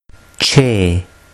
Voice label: "che", urdu

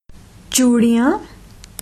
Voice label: bangles, hindi

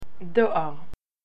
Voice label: "mail", Hebrew

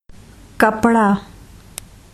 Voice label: cloth, hindi

